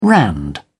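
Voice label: "rand", British English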